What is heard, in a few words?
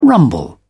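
"rumble", English, British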